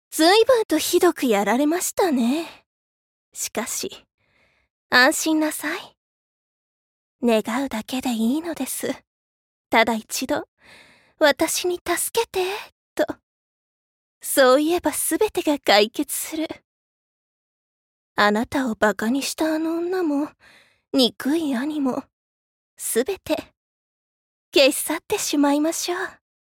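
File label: voice sample, seductive woman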